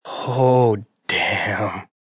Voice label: Hoooh Damn, Exclamation, Male

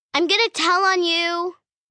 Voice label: "Tell On You", 1 girl, 9 yrs; Talking; VoiceBox

